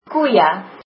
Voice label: cows, afrikaans